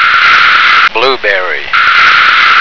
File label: "blueberry", American